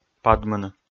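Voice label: "падмыны", Komi, male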